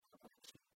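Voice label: "ӧгурчи", Komi, female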